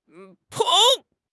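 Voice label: "捧", Mandarin Chinese; HSK6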